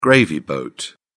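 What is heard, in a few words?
"gravy boat", British English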